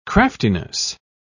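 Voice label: "craftiness", British English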